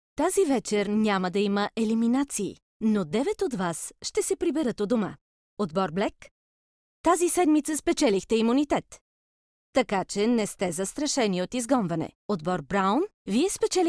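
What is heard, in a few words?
bulgarian female narration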